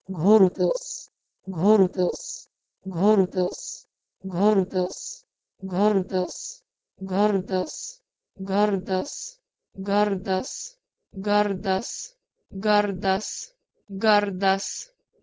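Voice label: Proto-Indo-European ghordhos to Lithuanian gardas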